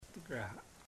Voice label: I know, chamacoco; Puerto Diana

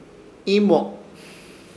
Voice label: "Iimo", Ishir Chamacoco; onorar

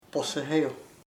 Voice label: without charge, chamacoco; gratis

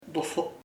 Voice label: sick, chamacoco; enfermo

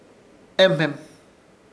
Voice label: "Ehmem", Ishir Chamacoco; aparecer de sorpresa

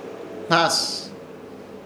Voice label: "Hnaas", Ishir Chamacoco; mudar

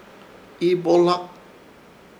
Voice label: "Ibohlak", Ishir Chamacoco; comilon

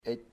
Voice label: your father, chamacoco

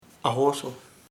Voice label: word, chamacoco; palabra

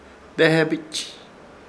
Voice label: "Dehebich", Ishir Chamacoco; hermano

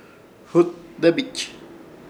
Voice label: "hutdebich", Ishir Chamacoco; travieso

